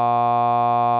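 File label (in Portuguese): Sinais de voz com variação de R_g, R_g=1.0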